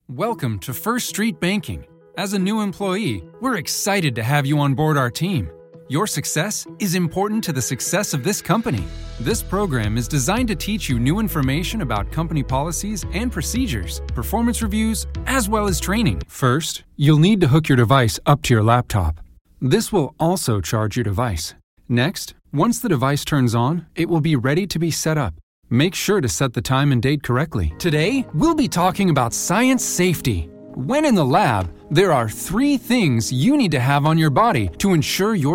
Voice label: eLearning